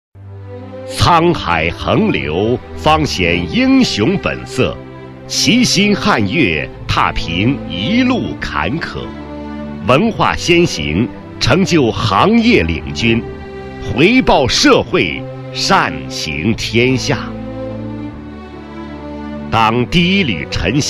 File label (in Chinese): 驾校样音, 国语男声配音; 保安驾校样音